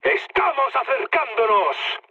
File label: near waypoint, mood high, speech; War Thunder